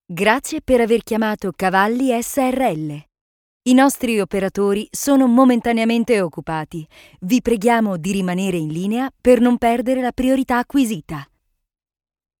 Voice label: Italian, F, IVR